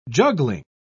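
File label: "juggling", British English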